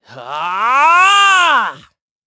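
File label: Vox Casting, Korean; Siegfried; kings raid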